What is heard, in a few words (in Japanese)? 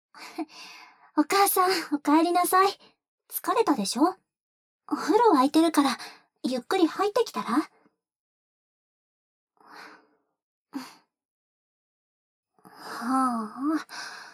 ボイスオンリー, ASMR; 義妹と一緒にお出迎え「お義母さん、お帰りなさい」